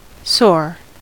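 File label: "sore", American English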